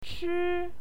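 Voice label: "chi", Mandarin Chinese, first tone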